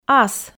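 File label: "ас", Komi, female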